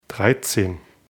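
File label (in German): "dreizehn", German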